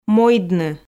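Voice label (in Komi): "мойдны", Komi, female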